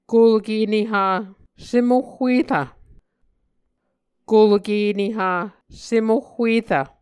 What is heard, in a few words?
"kulke neha semoh huerv", Muscogee; Mvskoke Words with Repeated Consonants; Onvkv Ohhvyayices; A Dictionary of Creek/Muskogee; SIL International